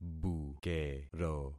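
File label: "bukero", concatenated syllables; Affixing experiment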